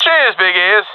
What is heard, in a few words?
thankful, professional; vo; Counter Strike Model Pack; CS:GO